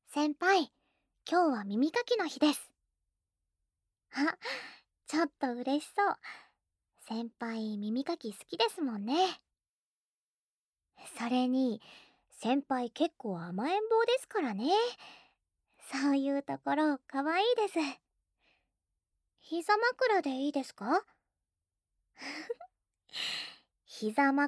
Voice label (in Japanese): 耳かきしてあげます（SE無し）; ASMR